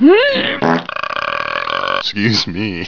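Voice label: "excuse me", English; geraeusche menschl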